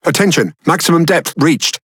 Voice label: Speech Alarms Sub Max Depth, EN GB; World Of Warships

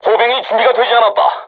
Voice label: voice message art reloading, korean, crew tanks

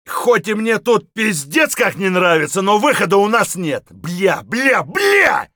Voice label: mutter; Escape from Tarkov

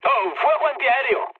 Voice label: damaged aa, mood med, speech; War Thunder

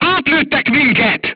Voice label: voice message player tank hit, hungarian

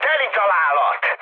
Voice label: bomb success, mood high, speech hun; War Thunder

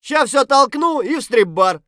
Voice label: scav mutter, voice; Escape from Tarkov; scav3

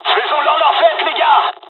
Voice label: dialog, dialogs french; War Thunder; midway

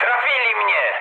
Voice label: aircraft damaged mood med, speech pol; War Thunder

